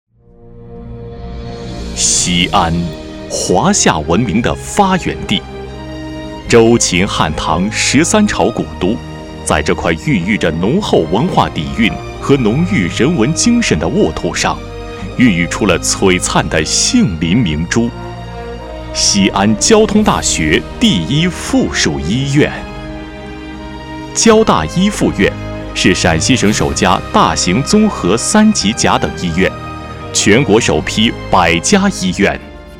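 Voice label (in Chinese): 宣传大气有力; 西安交通大学一附院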